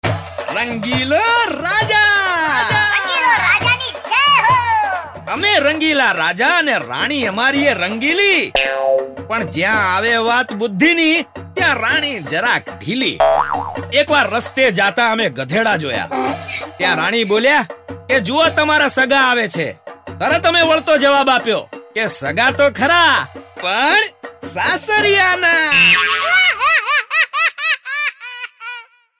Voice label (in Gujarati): Gujarati Jokes